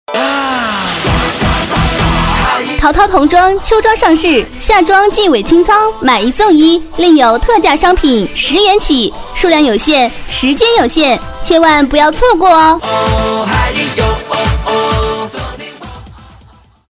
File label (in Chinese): 促销 淘淘童装秋装上市 女